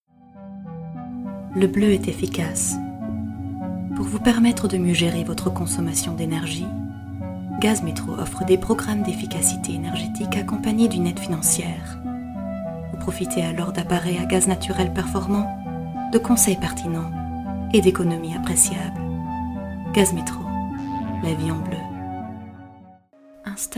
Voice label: French

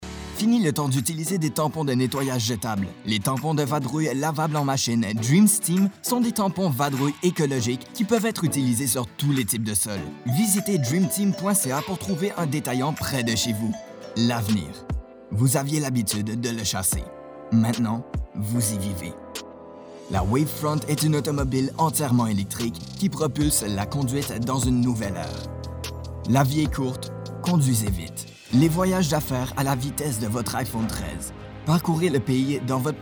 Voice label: advertising; French-Canadian, Male, 30s-50s

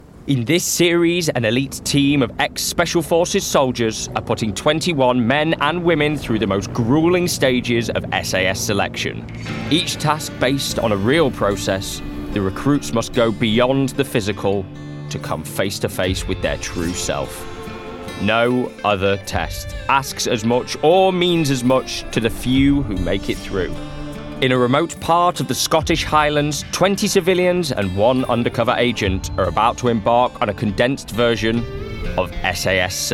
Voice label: Narration

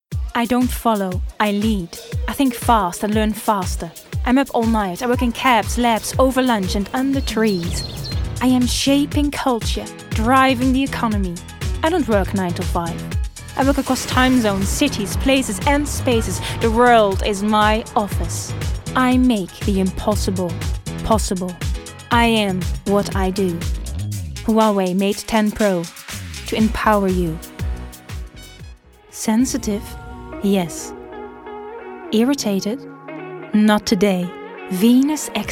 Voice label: Commercial

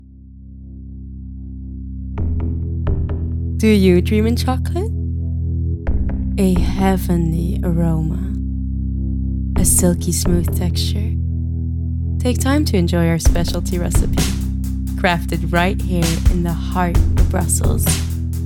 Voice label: English, flemish

